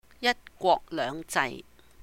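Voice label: "Yāt Gwok Léuhng Jai", One Country Two Systems, Cantonese; 一國兩制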